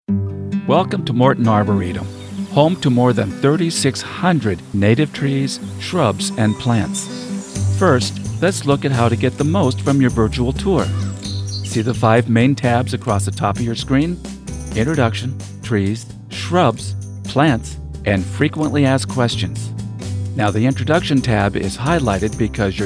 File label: Voice Over Virtual Tour